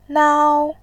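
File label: "nao", Mandarin, tone 1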